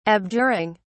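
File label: "abjuring", British English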